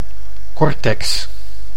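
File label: "cortex", Dutch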